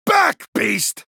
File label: Male Dwarf Attack